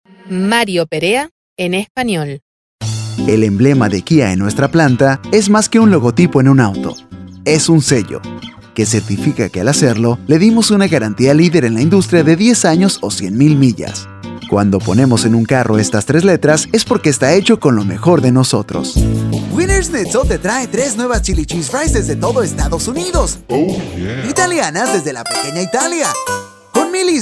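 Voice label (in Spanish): Voiceover, comerciales de radio; Servicio de voiceover y producción de comerciales de radio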